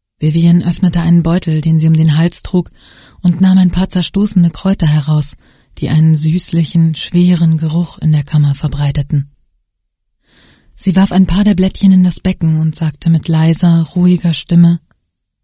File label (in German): deutsch prosa